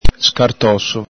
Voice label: "scartoso", Veneto

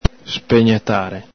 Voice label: "spegnatare", Veneto

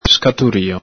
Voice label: "scaturio", Veneto

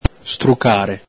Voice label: "strucare", Veneto

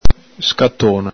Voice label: "scatona", Veneto

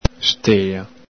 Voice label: "stela", Veneto

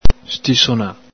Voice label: "stisona", Veneto

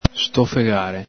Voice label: "stofegare", Veneto

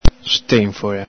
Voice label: "stenfhola", Veneto

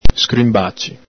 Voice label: "scrinbaci", Veneto

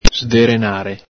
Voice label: "sderenare", Veneto

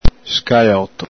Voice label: "scaloto", Veneto

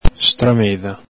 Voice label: "stramedha", Veneto

